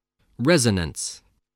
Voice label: "resonance", English